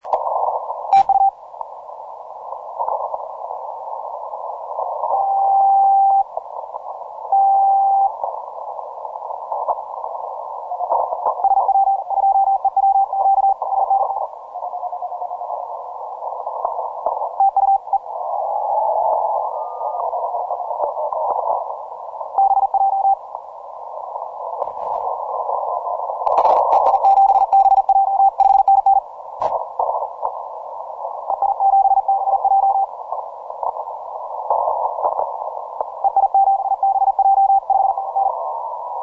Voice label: 40M CW